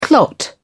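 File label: "clot", British English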